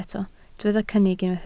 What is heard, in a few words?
"cynnig", Welsh